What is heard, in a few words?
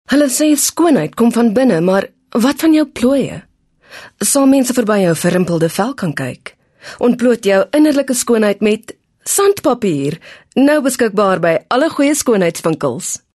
Afrikaans - Hard Sell